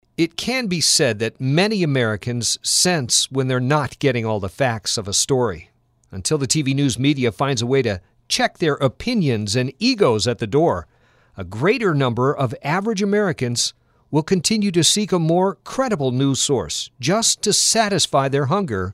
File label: tv news snip